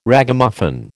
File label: "Ragamuffin", American